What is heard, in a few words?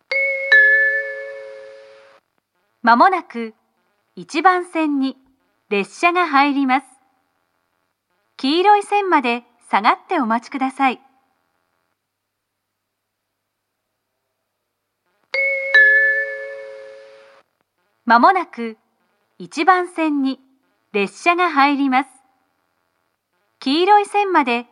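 platform 3 approaching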